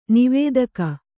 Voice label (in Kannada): "ನಿವೇದಕ", Kannada